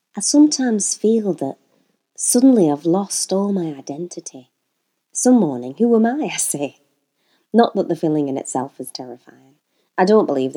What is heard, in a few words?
Northern Accent